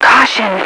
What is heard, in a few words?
"caution", radio female